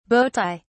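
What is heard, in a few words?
"bowtie", English, British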